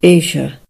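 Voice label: asia, Swedish; gcompris-data; geography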